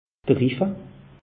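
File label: "riffe", Alsatian; meteo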